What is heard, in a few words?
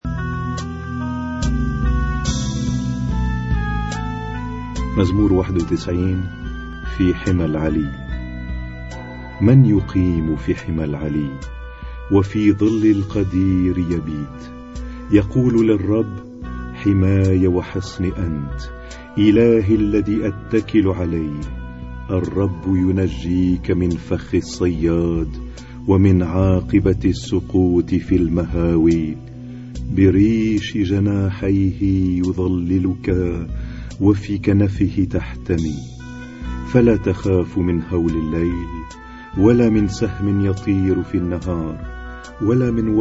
Arabic Psalm Zaboor